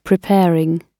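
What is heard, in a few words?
"preparing", British English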